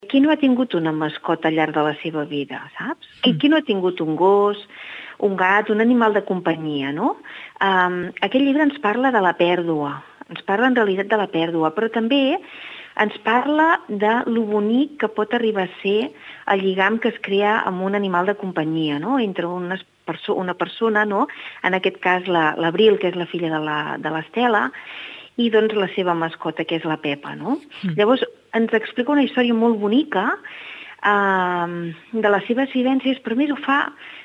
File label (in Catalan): conte infantil